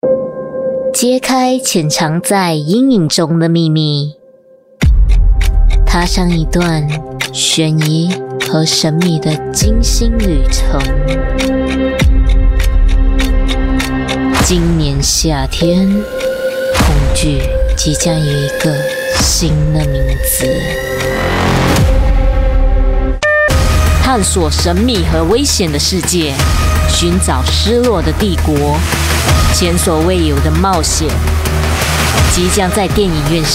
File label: chinese voice over female narrator